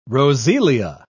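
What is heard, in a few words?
"roseola", British English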